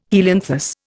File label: "helianthus", Indian